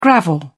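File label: "gravel", British English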